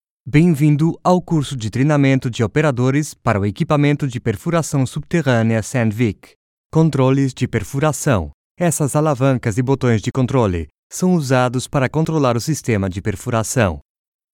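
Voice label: Elearning Portuguese Brazilian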